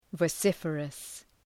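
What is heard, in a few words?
"vociferous", English word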